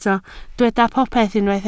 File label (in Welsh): "popeth", Welsh